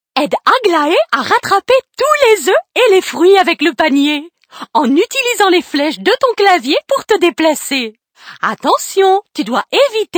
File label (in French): enfants; aglae et sidonie